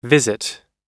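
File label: "visit", American English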